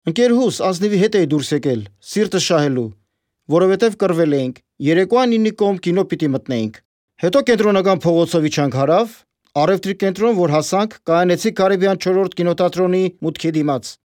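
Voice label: Armenian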